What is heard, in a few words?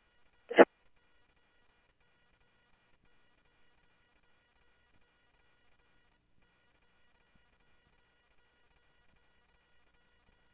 Police, scanner; H-Scottsdale; ScottsdalePolice